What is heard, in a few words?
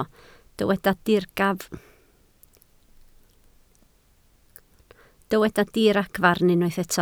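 "diragfarn" Welsh, spoken word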